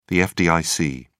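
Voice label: "fdic", British English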